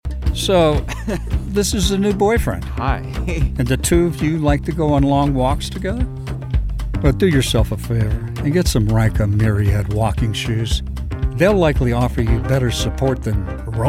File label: HIP COMMERCIAL